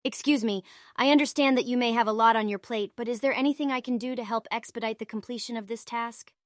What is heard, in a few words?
synthesized audio